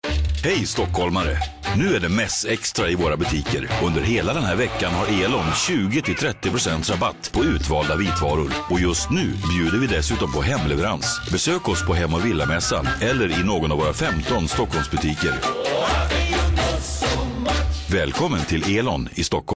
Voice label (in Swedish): werbung; swe